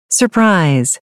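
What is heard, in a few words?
"surprise", American English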